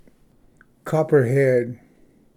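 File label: "copperhead", English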